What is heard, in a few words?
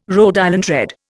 "Rhode Island Red", Indian